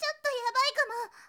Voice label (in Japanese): syozyo tyottoyabaikaom